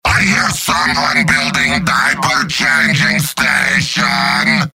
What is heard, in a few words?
Heavy taunts; Robot-filtered lines from MvM